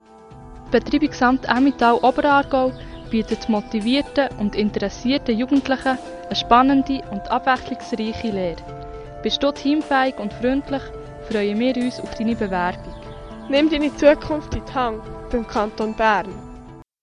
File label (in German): Jingle; Betreibungsamt Emmental Oberaargau